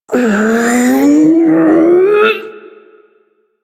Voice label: Ability Lift, Barks; Warframe; Corpus Lich; Persona C

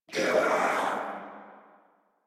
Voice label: Death Sniper, en

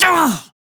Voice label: Pain, Male; Warframe; Railjack; Red Veil

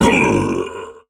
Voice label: Pain Heavy; Warframe; Dax Heavy; Battle Barks

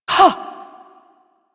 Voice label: Ability Throw, Barks; Warframe; Corpus Lich; Persona B

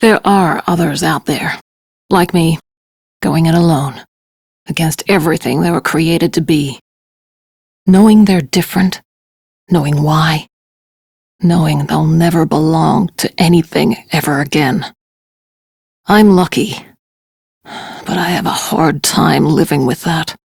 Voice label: Crew Idle, Steel Meridian Female; Dialog; en; Warframe; RailjackCrew

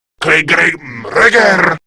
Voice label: Player Spotted, Male

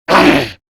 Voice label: Threatened, Male; Warframe; Grineer Male; Battle Barks

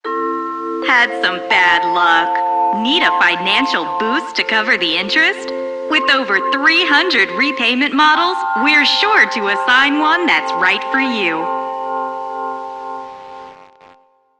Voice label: PA System, en; Warframe; Solaris Venus

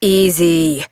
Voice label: Horse Calm Adult; Duviri

